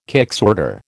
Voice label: "kicksorter", American